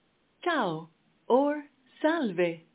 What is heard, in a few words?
Italian, hello